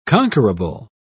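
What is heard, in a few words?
"conquerable", British English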